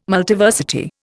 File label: "Multiversity", Indian